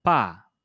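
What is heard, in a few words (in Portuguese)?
"pá", português europeu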